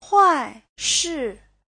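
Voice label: "huàishì", Chinese; 坏事; việc xấu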